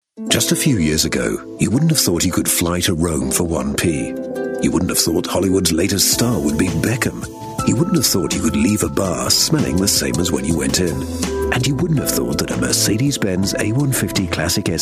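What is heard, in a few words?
British commercial car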